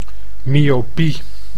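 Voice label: "myopie", Dutch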